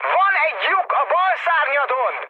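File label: Hungarian left wing mood high, speech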